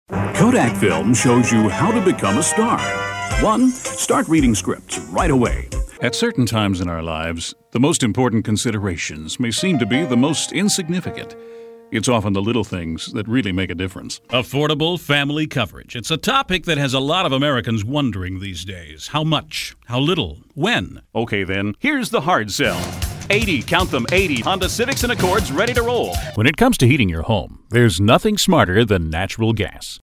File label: Commercials